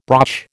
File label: "broch", American